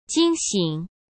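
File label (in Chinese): "惊醒" Mandarin Chinese; chinese-flashcards; hsk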